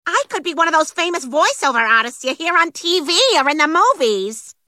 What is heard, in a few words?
Quirky Female English